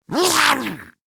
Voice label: Attack Effort Ghoul, en; Grineer Ghoul Expired; Battle Barks

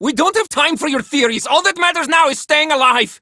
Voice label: Villagers Male, Cetus Invasion

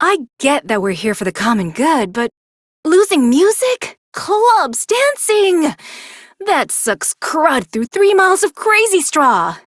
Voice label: Idle Barks, en; Aoi

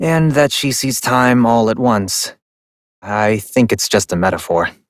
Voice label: Operator Voice, en; New War; Ascend Tower

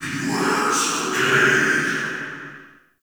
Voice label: Wandering Ghost Soldier Vocal Idle; Enemy; Vocals

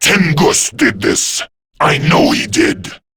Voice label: Grineer Male en; InfestedIntroQuest; Intel Inf Released; Warframe

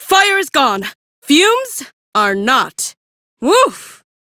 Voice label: Female; Warframe; Railjack; Cephalon Suda

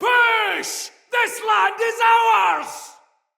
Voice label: turned tides afar, soldier male, en; Battle; Barks; Dialog; Dendrit

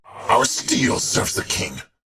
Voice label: Patrol, en; Warframe; Battle; Dax; DaxKnight

